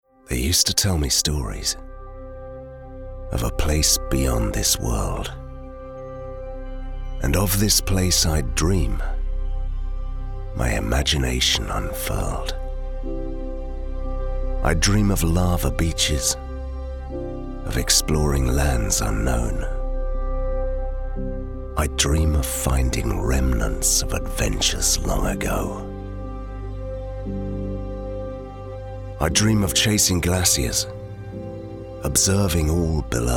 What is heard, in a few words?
male british english narrative comercial